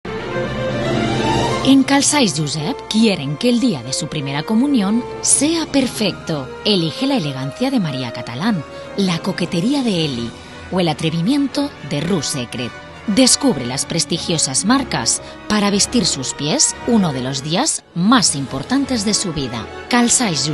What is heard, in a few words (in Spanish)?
anuncio calzados; josep